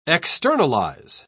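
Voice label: "externalise", British English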